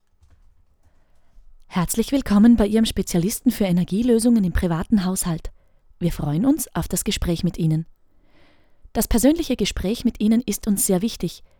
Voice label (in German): anrufbeantworter